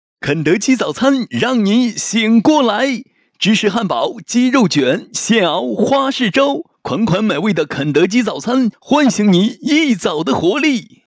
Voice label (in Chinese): 促销大气活力激情